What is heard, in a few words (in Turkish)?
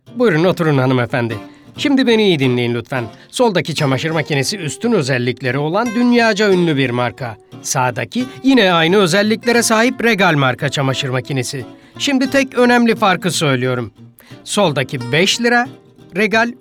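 enerjik esprili, seslendirme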